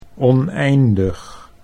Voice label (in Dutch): "oneindig", Dutch